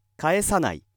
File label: male